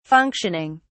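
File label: "functioning", British English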